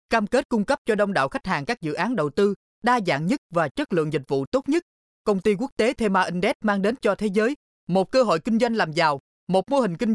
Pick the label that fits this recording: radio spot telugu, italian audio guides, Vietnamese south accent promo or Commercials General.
Vietnamese south accent promo